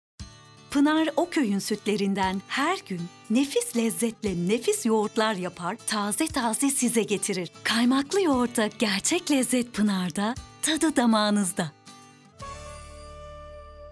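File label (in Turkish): sicak samimi, enerjik tempolu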